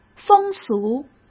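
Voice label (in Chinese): "风俗", Mandarin Chinese; hsk; chinese-flashcards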